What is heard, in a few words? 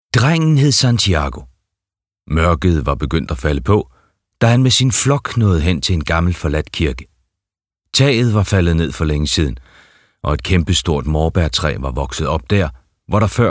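Danish Audiobook Male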